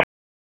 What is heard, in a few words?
Police Tac, p25; Wake